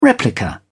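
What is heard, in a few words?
"replica", British English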